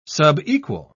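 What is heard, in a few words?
"subequal", British English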